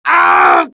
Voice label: death, dialogue; mohaa